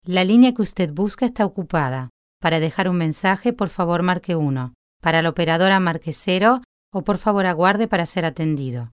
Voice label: Spanish; קריינות